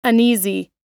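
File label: "uneasy", US English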